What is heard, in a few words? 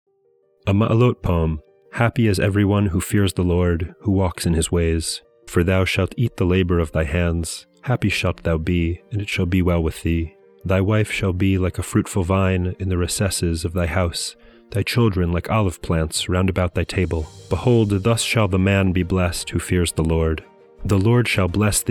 poetry and prayer psalm english